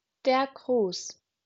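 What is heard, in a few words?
"Gruß", German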